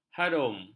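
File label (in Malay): "harum", Malay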